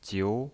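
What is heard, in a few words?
"jiu", Mandarin Chinese, second tone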